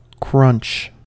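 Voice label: "crunch", American English; pronunciations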